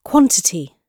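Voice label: "quantity", British English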